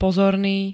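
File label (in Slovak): "pozorný", Slovak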